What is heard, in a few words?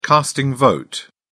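"casting vote", British English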